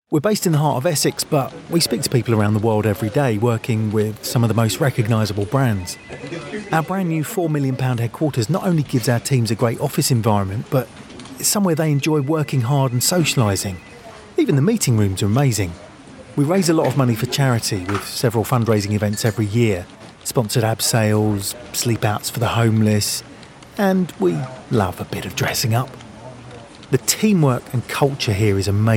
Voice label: conversational vox pop estuary

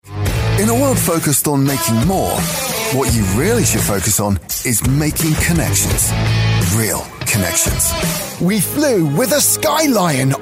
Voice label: commercial, Cool Edgy Southern British Voice

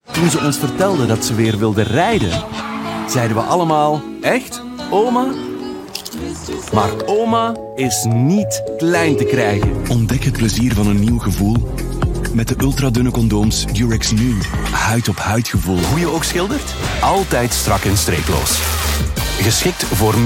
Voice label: Flemish voice commercial, Friendly Modern

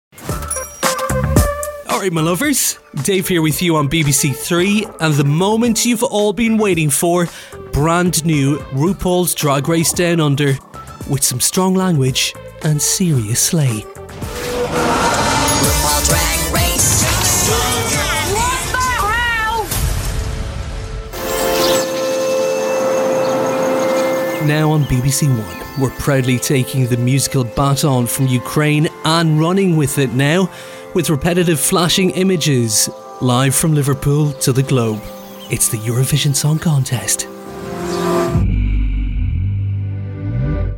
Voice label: irish voiceover tv continuity

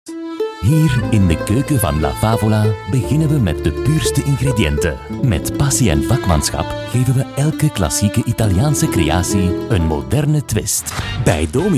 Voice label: flemish corporate

